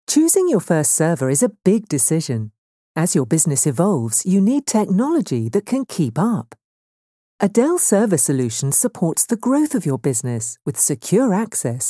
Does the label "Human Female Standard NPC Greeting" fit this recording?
No